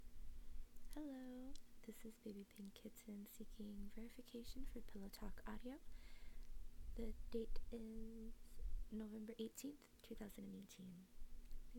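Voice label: Female Verification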